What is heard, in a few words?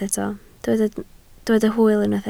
"hwyl", Welsh